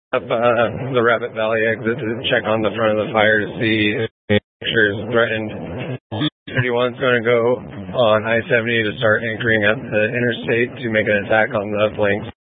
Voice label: Incident Tac, scanner

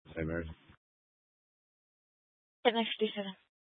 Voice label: Fire Dispatch, scanner

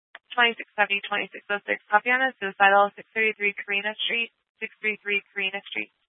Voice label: Mesa County Sheriff Dispatch

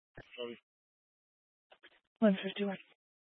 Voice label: Fire Dispatch

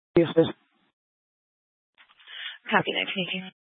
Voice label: Sheriff Dispatch; Mesa County

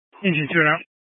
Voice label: Fire Dispatch, scanner

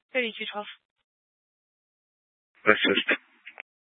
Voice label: Police Department Dispatch, scanner

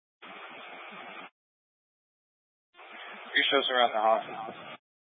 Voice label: Fire Department Dispatch; Grand Junction

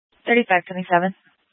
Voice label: Police Department Dispatch; Grand Junction

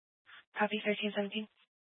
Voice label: Mesa County Sheriff Dispatch

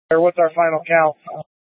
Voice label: Tactical Incidents, scanner

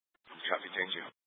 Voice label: Fire Dispatch, scanner

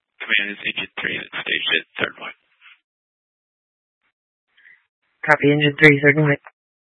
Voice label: Tactical Incidents, scanner; Grand Junction Tactical 1

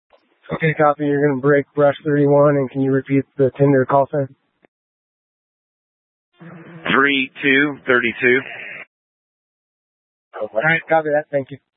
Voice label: Incident Tac, scanner; Incident Tac 1

